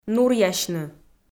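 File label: "нуръясьны", Komi, female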